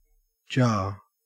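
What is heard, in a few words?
"jar", Australian English; pronunciations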